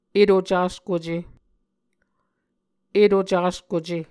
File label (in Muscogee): "eto caskuce", Muscogee; Mvskoke Words with Voicing Consonants; A Dictionary of Creek/Muskogee; SIL International